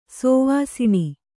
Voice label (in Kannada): "ಶವಾಸನ", Kannada